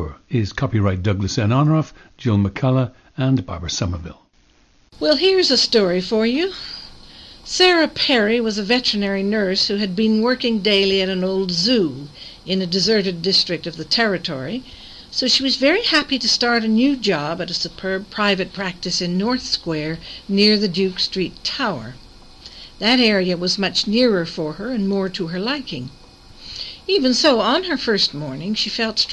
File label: General American